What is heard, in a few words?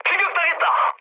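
Korean aircraft damaged mood high; speech; War Thunder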